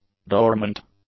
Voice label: "dormant", English; adjectives

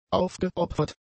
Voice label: "aufgeopfert", German; adjectives

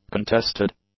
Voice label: "contested", English; adjectives

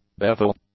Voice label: "bevel", English; adjectives

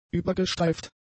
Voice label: "übergestreift", German; adjectives

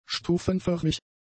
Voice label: "stufenförmig", German; adjectives

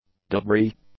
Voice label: "debris", English; nouns

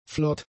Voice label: "flott", German; adjectives

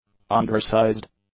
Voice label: "undersized", English; adjectives

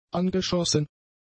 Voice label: "angeschossen", German; adjectives

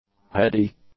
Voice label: "heady", English; adjectives

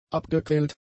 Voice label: "abgequält", German; adjectives

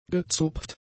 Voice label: "gezupft", German; adjectives

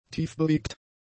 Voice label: "tiefbewegt", German; adjectives

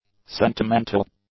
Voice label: "sentimental", English; adjectives

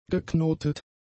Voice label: "geknotet", German; adjectives

